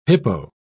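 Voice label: "hippo", British English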